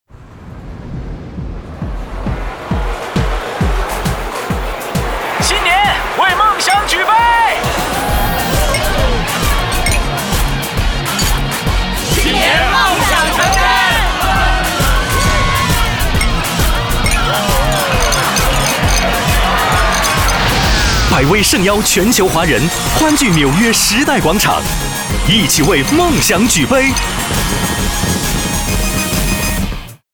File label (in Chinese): 广告_酒类; 百威